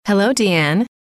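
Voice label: hello, female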